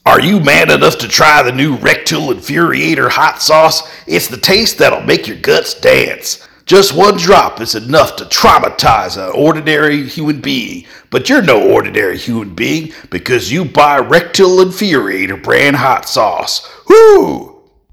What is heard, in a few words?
commercial; rectal infuriator